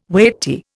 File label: "weighty", Indian